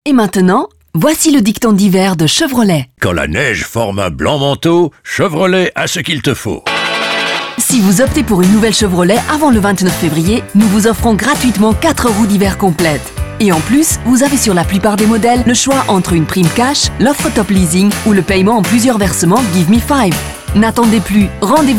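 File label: Commercial, French; Chervrolet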